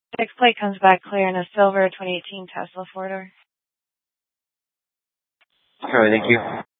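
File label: Police Department Dispatch, scanner; TOPAZ Regional Wireless Cooperative (TRWC) - Mesa Simulcast; Mesa PD; 4 Superstition Dispatch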